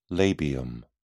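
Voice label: "labium", British English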